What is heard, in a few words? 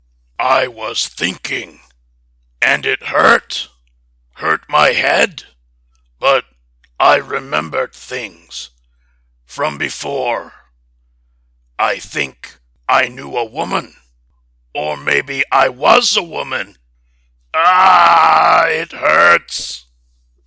Impression Super Mutant, voicework; (Fallout game series)